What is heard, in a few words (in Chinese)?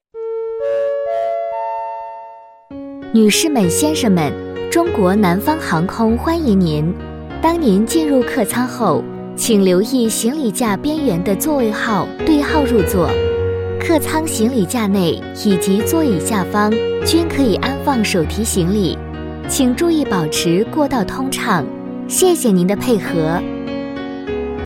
航空播报